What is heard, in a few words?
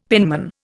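"penman", Indian English; Dictionary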